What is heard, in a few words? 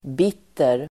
"bitter", Swedish